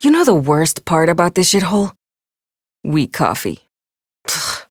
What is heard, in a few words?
Ambient Barks, en; Warframe; Mall Romance; Lettie